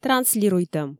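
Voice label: "транслируйтӧм", Komi, female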